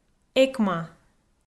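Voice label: "экма" Komi, female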